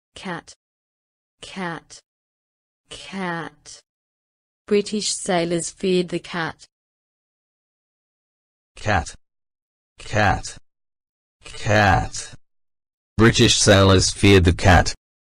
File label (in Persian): تلفظ استرالیایی کلمه کت; تلفظ گربه به انگلیسی